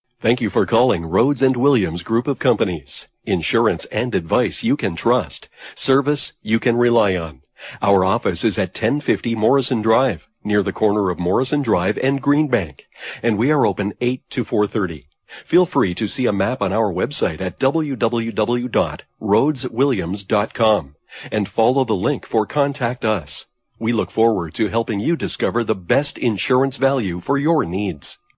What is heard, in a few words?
Male